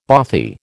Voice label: "bothy", American

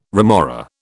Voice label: "remora", British

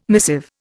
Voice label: "missive", Indian

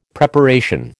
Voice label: "Preparation", American

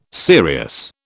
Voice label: "serious", British

